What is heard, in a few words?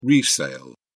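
"resale", British English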